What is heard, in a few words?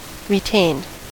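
"retained", US English; pronunciations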